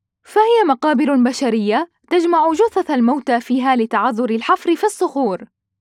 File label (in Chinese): 标准阿拉伯语，口语对话风格